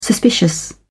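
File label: "suspicious", British English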